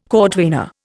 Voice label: "cordwainer", Indian